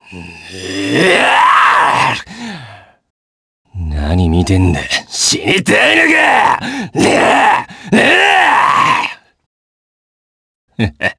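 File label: vox get Japanese; Ezekiel; kingsraid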